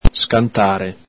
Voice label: "scantare", Veneto

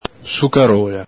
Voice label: "sucarola", Veneto

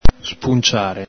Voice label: "spunciare", Veneto

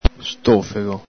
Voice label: "stofego", Veneto

